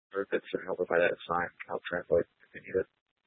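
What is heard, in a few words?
Tempe police department, scanner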